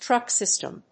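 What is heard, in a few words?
"truck system", English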